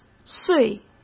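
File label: "岁", Mandarin Chinese; chinese flashcards; hsk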